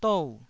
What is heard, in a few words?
"dou4", Taiwanese